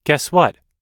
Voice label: "guess what", US English